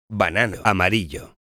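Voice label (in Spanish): "banano amarillo", Spanish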